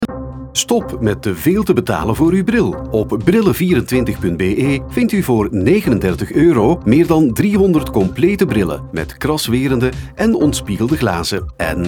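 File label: flemish male narration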